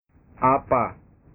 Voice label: "apa", bahasa

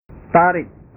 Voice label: "tari", bahasa

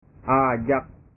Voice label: "ajak", bahasa

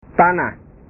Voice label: "tanah", bahasa

